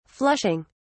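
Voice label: "flushing", British English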